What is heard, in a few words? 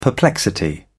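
"perplexity", British English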